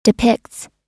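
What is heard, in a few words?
"depicts", US English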